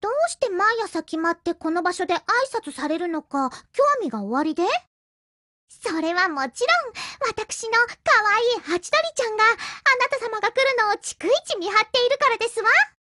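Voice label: HELLO Japanese, Voice; GF Alarm; Kolibri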